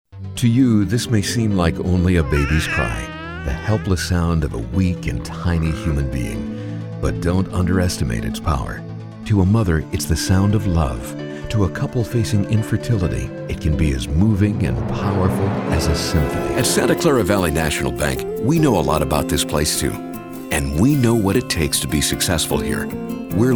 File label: soft sell